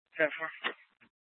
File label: Police South Dispatch; Scttsdle